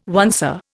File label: "oncer", Indian